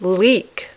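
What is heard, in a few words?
"leak", English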